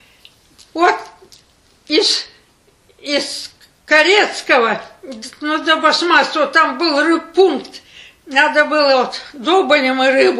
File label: middle ob dialect; обласок